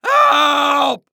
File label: Human Male Yell Help Frustrated